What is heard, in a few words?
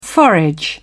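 "forage", en, uk British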